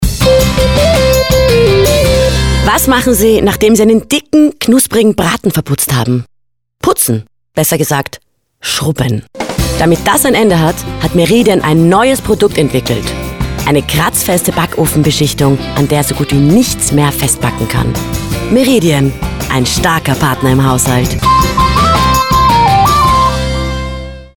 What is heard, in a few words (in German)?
Spot; Ticmusic